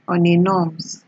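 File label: "on a norms", Nigerian Pidgin, female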